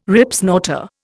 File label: "Ripsnorter", Indian